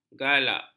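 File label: "galak", Malay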